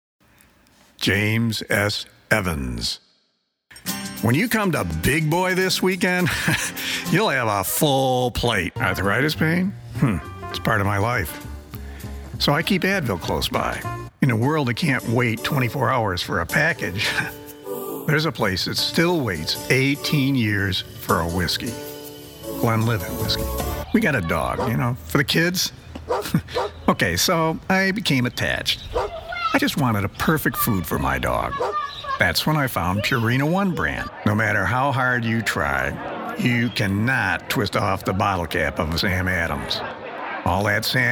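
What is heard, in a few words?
commercial voice over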